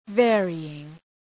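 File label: "varying", English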